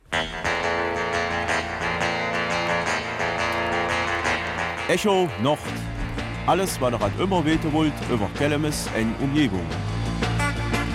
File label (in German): Kelmiser Mundart; Karneval in der Großgemeinde Bleyberg